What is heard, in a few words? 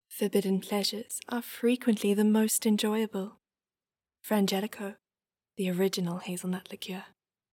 English Intimate